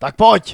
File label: zombies, npc male